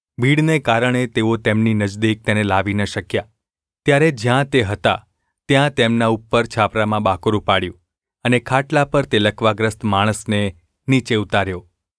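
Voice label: gu ulb mrk, Gujarati; mrk 2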